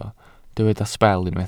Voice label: "sbel" Welsh

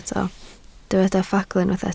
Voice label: "ffagl", Welsh

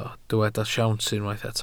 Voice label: "siawns", Welsh

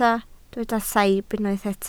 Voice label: "saib" Welsh

